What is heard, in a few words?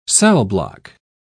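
"cellblock", British English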